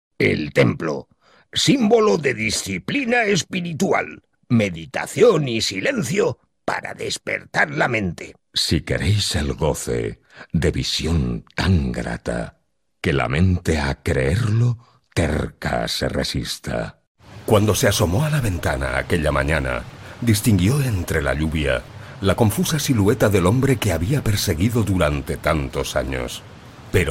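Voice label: Spanish Spain male voice over, character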